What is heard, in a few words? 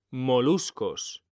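"moluscos", Spanish, male; mekanta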